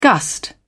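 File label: "gust", British English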